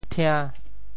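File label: "thia~5", Teochew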